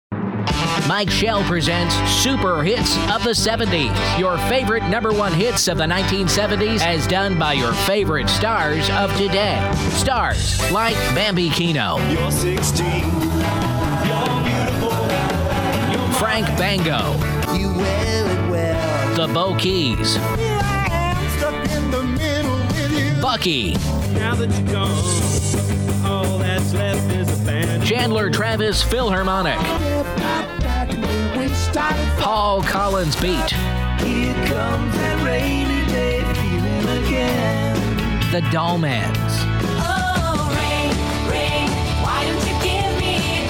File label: commercial; MichaelS Super Hits